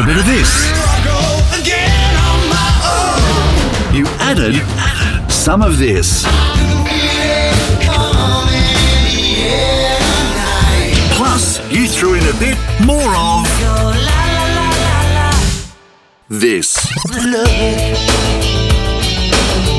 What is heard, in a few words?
Cassette Promo